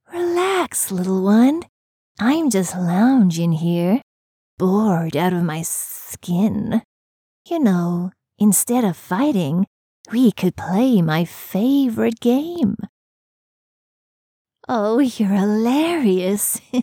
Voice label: english international video games; Synchronsprecher aus Südafrika